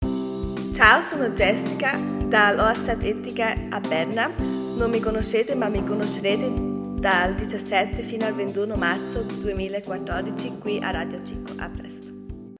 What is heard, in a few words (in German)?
ITALIENISCH